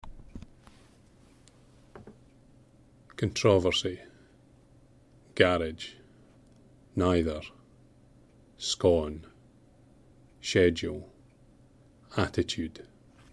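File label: kirkcaldy scotland male, accent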